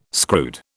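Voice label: "screwed", British English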